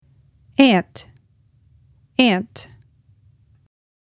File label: ant english; insects-animals